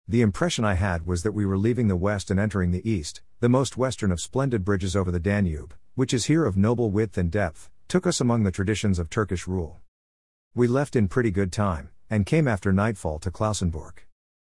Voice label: male